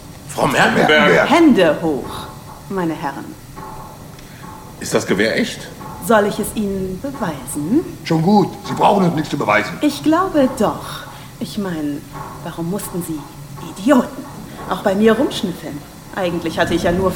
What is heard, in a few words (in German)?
Hoerspiel; WDR; Frau Merkenberg